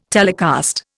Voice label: "telecast", Indian